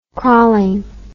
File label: "crawling", English